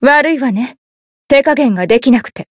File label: win jp